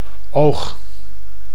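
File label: "oog", Dutch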